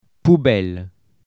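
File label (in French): "poubelle", French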